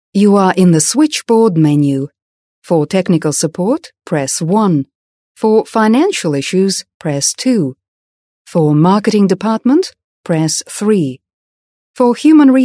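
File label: British; uk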